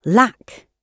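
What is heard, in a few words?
"lack", British English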